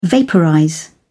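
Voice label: "vaporize", British English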